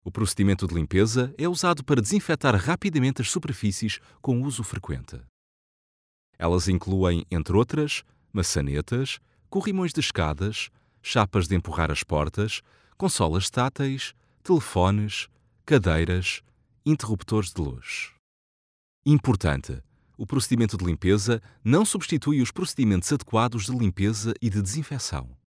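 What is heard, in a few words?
portuguese narration, male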